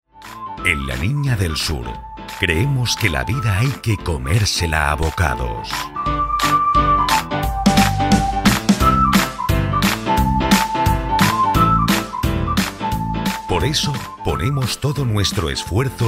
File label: Spanish Voice Over Narrative